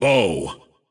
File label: intense